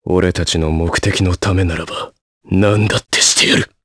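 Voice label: voice Get, voices heroes, Japanese; Riheet; kingsraid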